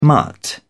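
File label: "mutt", British English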